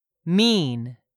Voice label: "mean", English; 3000 words